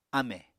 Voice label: "ámè", Apatani